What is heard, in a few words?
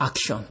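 "action", Nigerian Pidgin, male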